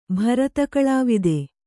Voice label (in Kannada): "ಭರತಕಳಾವಿದ", Kannada